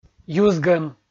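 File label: "юзгӧм", Komi, male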